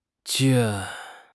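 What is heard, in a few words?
"jian4", Mandarin Chinese; HSK2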